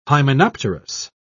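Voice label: "hymenopterous", British English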